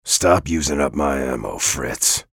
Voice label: chatter positive; bjblazkowicz; frag